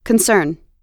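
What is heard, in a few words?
"concern", US English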